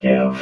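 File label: "give", robot voice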